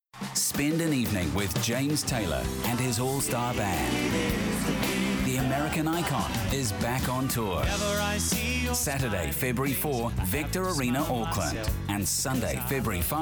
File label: NZ